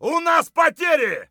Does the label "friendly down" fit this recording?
Yes